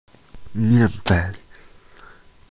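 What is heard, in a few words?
"mian fen", Mandarin Chinese, female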